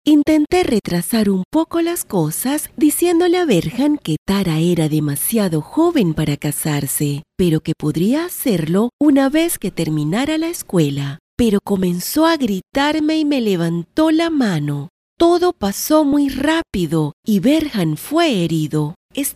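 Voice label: doblaje, Venezuelan Spanish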